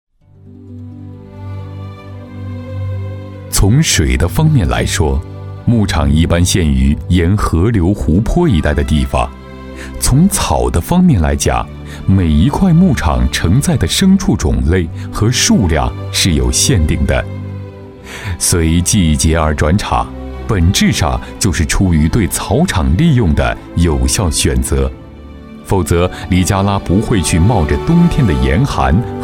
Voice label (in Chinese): 男,地方文化解说